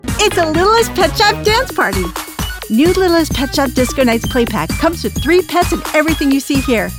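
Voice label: Toys Bright Energetic, Professional Female Voice Actor; LittlestPetShop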